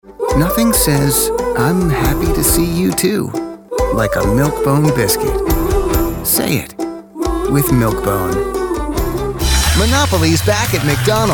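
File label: American commercial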